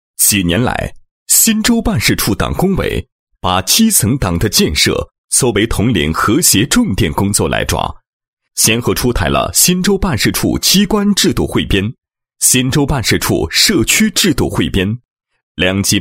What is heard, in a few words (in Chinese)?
男 政府; 推进四个全面 基层党建统和谐